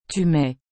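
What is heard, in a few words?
"tu mets", French; mettre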